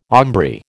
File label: "aumbry", American